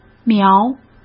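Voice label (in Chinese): "苗", Mandarin Chinese; hsk; chinese-flashcards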